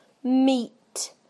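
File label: "meat", British English; PictureDictionary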